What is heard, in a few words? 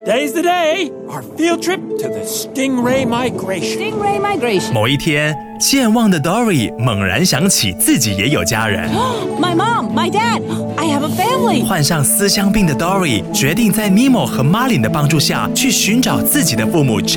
smooth Gravelly